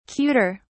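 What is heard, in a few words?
"cuter", British English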